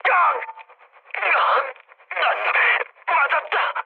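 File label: Korean damaged pilot mood med, speech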